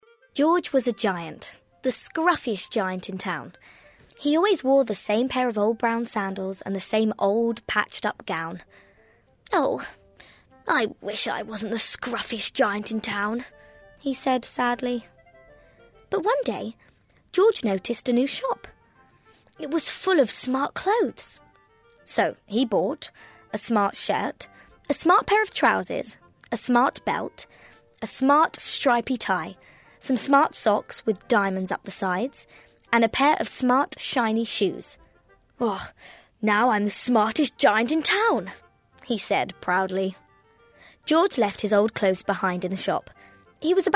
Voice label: Narration Kids story